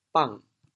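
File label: "bang3", Teochew, chaoyang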